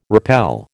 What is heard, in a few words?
"rappel", American